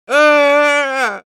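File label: pain critical death, Spanish; Engineer; Grito de muerte 4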